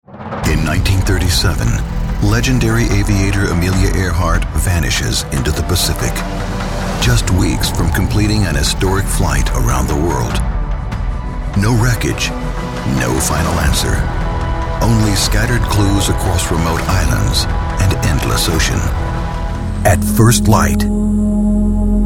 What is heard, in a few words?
In Show Narration